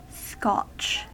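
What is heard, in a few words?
"scotch", US English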